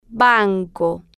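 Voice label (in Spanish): "banco", Spanish, female, slow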